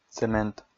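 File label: "цемент", Komi, male; kpv audio dict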